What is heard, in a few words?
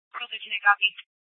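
Scottsdale PD North Dispatch; Nth Dispatch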